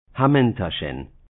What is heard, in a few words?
"Hamantaschen", Hebrew